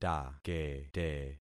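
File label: "takete", concatenated syllables; Affixing experiment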